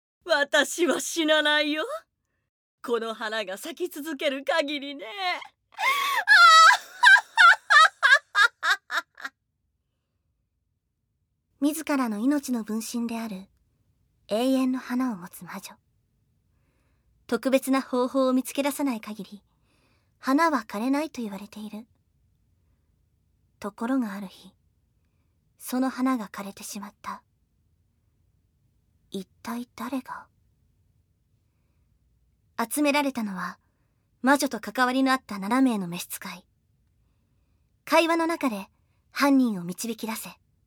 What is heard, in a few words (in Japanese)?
台詞ありナレーション